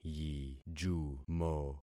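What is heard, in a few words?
"yijumo", concatenated syllables; Affixing experiment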